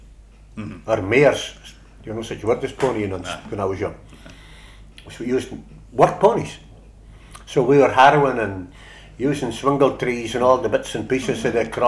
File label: Northern and Insular Scots, Shetland - Unst